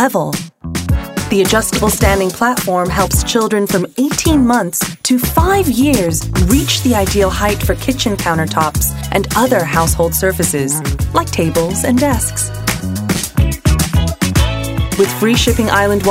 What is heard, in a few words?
Asian English Toddler Tower